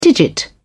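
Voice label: "digit", en, British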